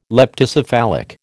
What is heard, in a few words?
"leptocephalic", American